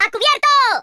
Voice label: "take cover", Spanish; speech

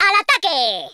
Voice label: "watch this", Spanish; speech

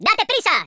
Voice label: "hurry", Spanish; speech

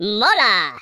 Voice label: collect, Spanish; speech

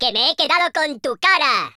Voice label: "I'll get you", Spanish; speech

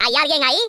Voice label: "hello", Spanish; speech

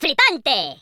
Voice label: "excellent", Spanish; speech

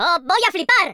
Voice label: "incoming", Spanish; speech

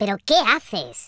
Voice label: "bummer", Spanish; speech

